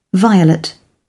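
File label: "violet", British English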